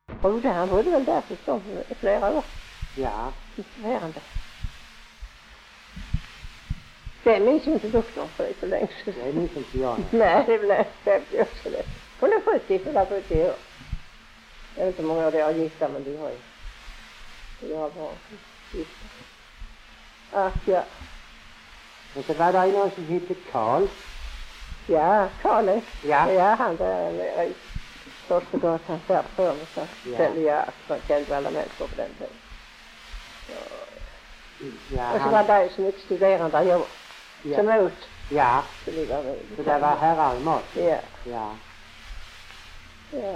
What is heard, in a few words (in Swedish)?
Lunds stad SK, dialekter; Folkminnen